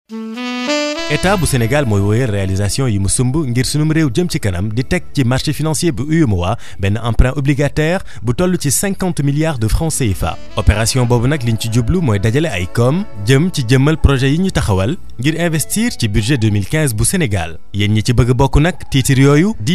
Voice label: spot radio wolof